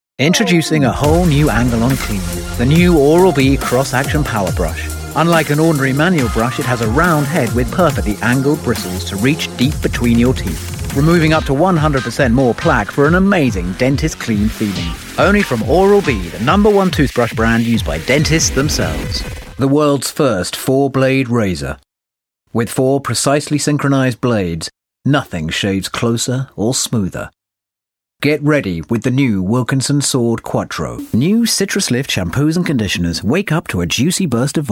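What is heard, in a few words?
cosmetic commercial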